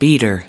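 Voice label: "beater", US English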